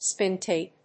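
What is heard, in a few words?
"spin tape", English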